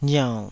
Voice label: "riaunn3", taiwanese syllable